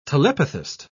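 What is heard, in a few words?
"telepathist", British English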